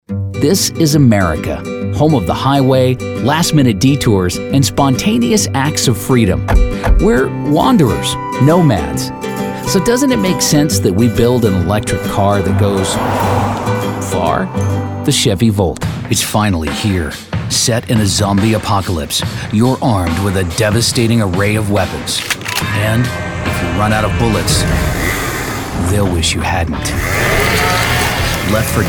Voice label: Commercial Demo